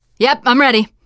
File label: answer ready, teen girl; player; survivor; voice